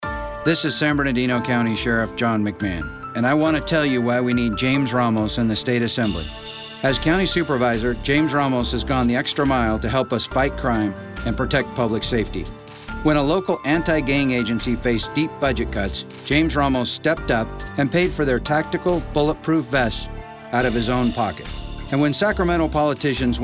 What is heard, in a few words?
radio spot; Sheriff